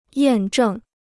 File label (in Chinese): "验证", Chinese